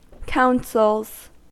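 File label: "counsels", American English; pronunciations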